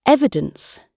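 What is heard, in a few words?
"evidence", British English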